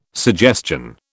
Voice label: "suggestion", British